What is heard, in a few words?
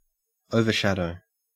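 "overshadow", Australian English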